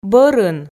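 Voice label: "бӧрын", Komi, female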